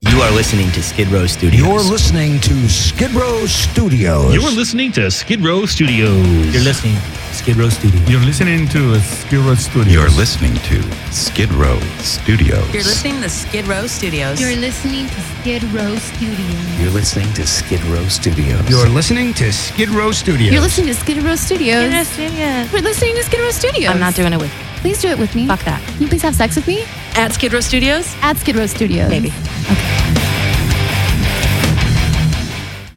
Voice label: Promo; Skidrow